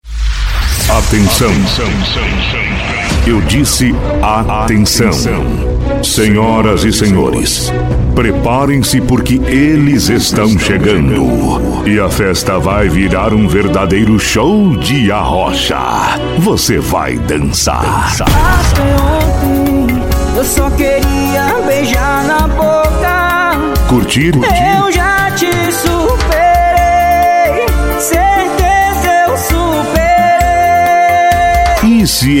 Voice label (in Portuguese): ABERTURA BANDA LOCUTOR; TOP 7